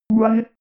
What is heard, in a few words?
robot voice one